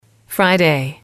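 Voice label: "friday", English, female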